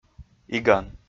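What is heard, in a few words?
"иган", Komi, male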